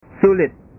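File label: "sulit", Indonesian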